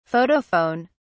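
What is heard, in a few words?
"photophone", British English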